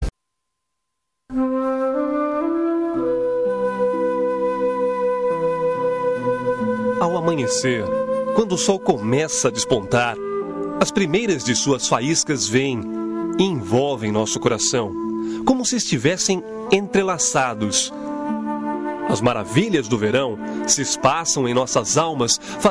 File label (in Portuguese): ROM DECLARACAO DE AMOR MASC; Telemensagens; Telemensagens 2017